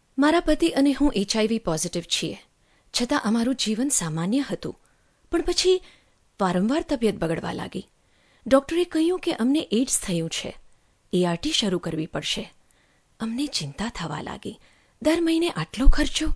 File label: Gujarati Female